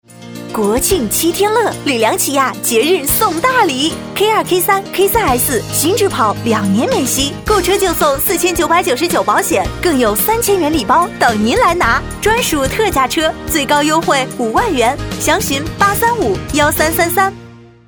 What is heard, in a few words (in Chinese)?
女 广告 节日 国庆节 激情; 国庆节起亚